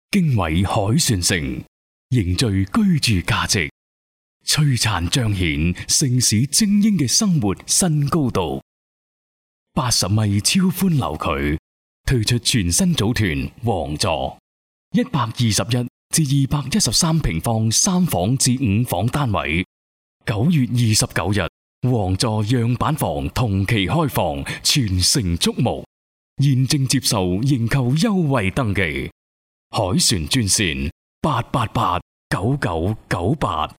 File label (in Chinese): 男粤 广告 地产 浑厚; 粤语; 经纬凯旋城